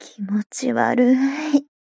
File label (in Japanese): mob 女子 台詞